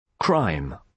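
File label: "crime", British English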